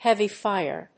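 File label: "heavy fire", English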